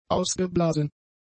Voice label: "ausgeblasen", German; adjectives